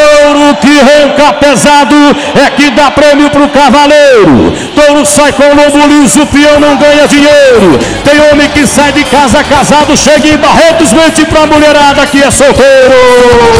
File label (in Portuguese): Rodeios Versos narrados; Esportes; touro